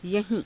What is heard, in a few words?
"yahii~", hindi